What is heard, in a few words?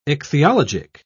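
"ichthyologic", British English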